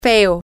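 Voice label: bad food, Spanish, female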